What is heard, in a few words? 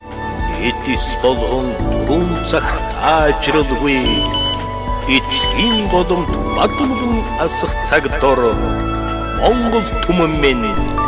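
Mongolian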